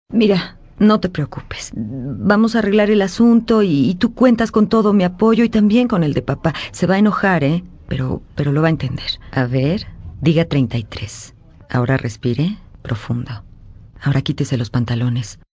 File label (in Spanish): Spanish South America, F